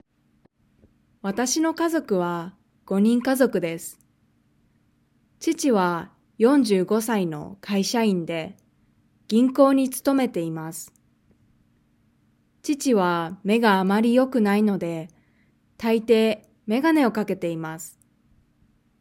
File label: Reading natural; Nakama; OJAD